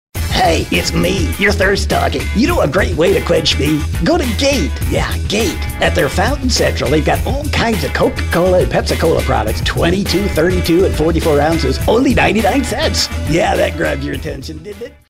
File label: character